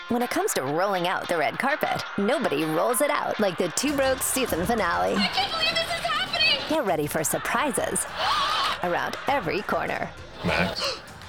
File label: TV promo